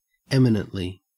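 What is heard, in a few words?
"eminently", Australian English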